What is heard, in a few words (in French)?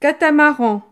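"catamaran", French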